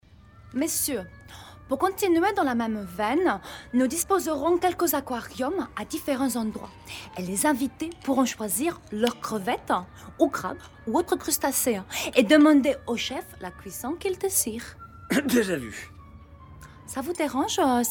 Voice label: female French French with Italian accent character